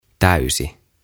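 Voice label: "Täysi", Finnish; Full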